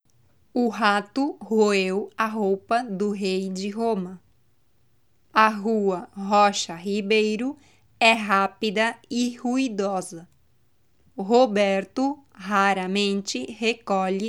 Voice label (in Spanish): Trabalenguas con R fuerte, portugues; letra r en portugues brasileno